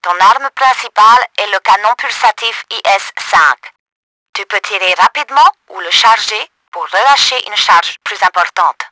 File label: tutorial pulse cannon, french; Battle Engine Aquila